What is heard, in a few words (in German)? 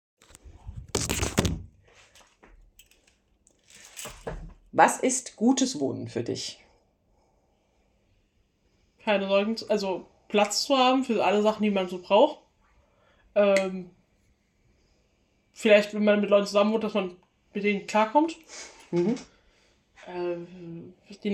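Junge Frau, o töne; Fachausschuss Haushalt und Wohnen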